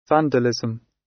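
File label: "vandalism", British; Crime and punishment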